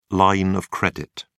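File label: "line of credit", British English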